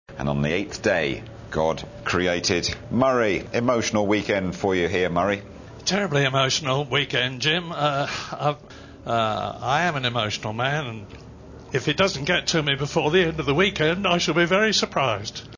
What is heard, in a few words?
emotional; britain 2001